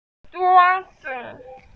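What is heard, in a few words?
"zhuo1 zi5", Mandarin Chinese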